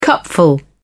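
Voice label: "cupful", British English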